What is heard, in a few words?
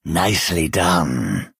Argonian male nice play